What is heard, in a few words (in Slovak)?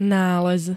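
"nález", Slovak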